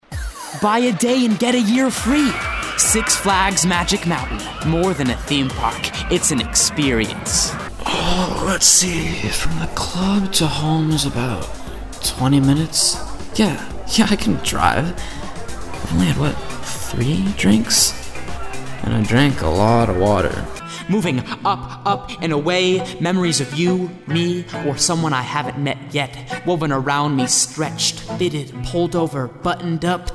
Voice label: Commercial